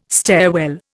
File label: "stairwell", Indian